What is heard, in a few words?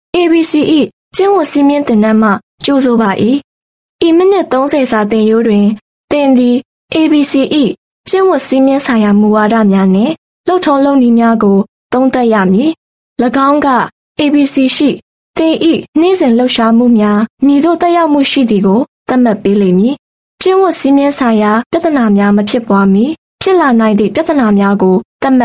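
Burmese F E-Learning, female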